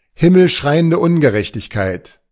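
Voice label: "himmelschreiende Ungerechtigkeit", German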